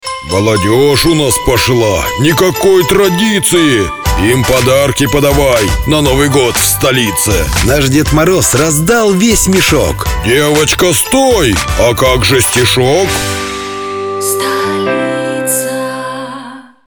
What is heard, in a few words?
commercial